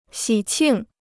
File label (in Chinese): "喜庆", Chinese